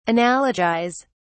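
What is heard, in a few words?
"analogise", British English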